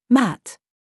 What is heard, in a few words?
DRESS TRAP, minimal pairs